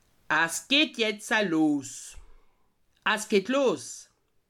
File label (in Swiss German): "los", alsace